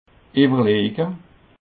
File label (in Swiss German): "uewerlege", alsace